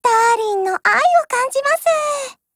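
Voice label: FEED, Japanese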